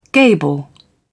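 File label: "gable", British English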